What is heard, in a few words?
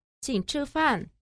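qing chi fan, Chinese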